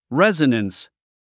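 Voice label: "resonance", English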